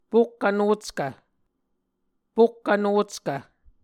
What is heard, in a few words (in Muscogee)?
"pokk vnockv", Muscogee; Mvskoke Words with Repeated Consonants; A Dictionary of Creek/Muskogee; SIL International